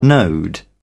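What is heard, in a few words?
"node", British English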